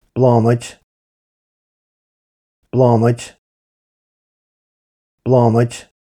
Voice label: "Blánaid", Irish